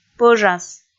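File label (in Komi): "пӧжас", Komi, female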